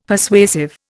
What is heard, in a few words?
"Persuasive", Indian; Dictionary